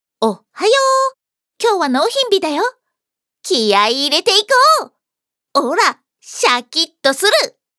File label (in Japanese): ハイテンション, ハイテンションな女の子